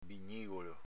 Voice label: "bignigolo", dialetto veneto

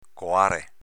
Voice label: "coare", dialetto veneto

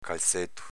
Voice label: "calzeto", dialetto veneto; s.m. calzino

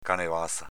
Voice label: "canevaza", dialetto veneto; s.f. strofinaccio

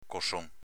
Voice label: "cosson", dialetto veneto; s.m. oscia di bovino

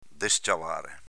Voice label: "desciavare", dialetto veneto; v. aprire la serratura

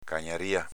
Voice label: "cagnaria", dialetto veneto; s.f. branco di cani

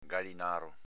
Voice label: "galinaro", dialetto veneto

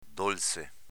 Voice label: "dolze", dialetto veneto

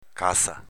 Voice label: "caza", dialetto veneto

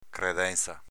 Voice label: "credenza", dialetto veneto; credènza s.f. credito

